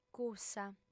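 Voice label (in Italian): "cussa", lingua sarda, female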